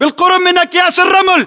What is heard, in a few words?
landmark near sandbags, localized english battlechatter